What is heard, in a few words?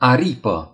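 "wing", Romanian; gcompris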